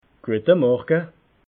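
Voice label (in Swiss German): "guata morga", Alsatian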